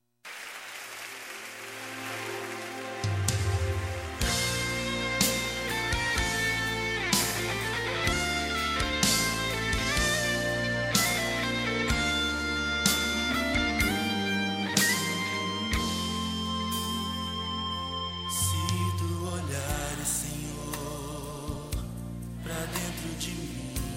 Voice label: neutra gospel, Aniversário Pessoa Especial Gospel – Voz Feminina

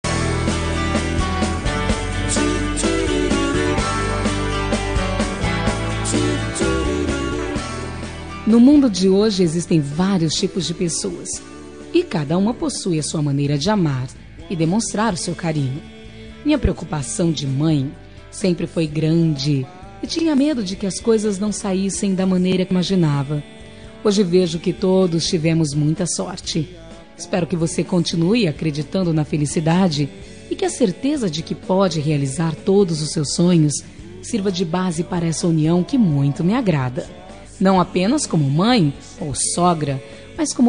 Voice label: nora fem

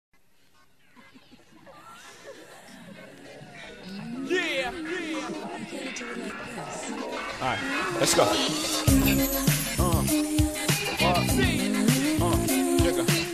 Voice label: dpinfantil fem, dia dos pais voz infantil feminina

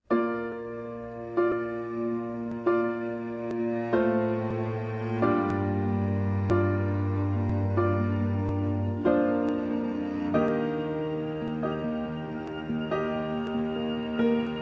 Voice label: dia dos namorados distante, voz masculina; dia dos namorados para namorada distante

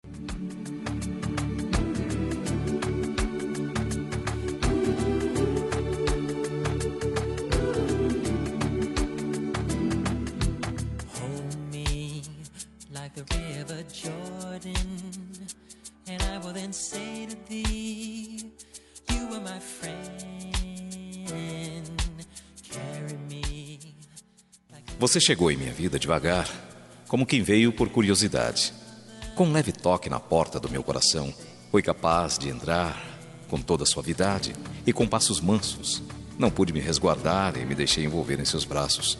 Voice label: romântica, Telemensagem Romântica – Voz Masculina

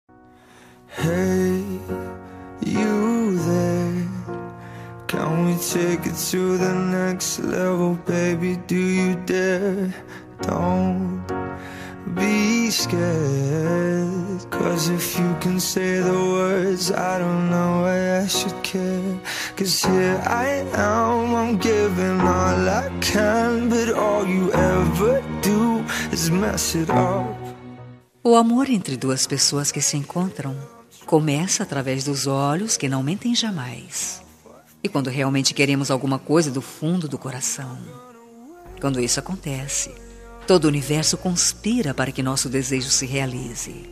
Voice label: inicio fem, suave voz feminina; Dia dos Namorados

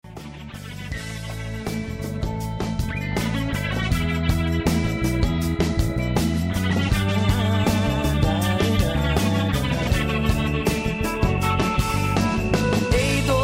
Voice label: amizade fem, Telemensagem Amizade – Voz Feminina; Telemensagens de Aniversário

